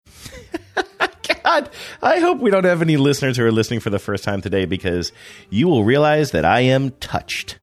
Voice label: laughing saying god i hope we dont have any listeners